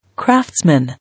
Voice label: "Craftsman", English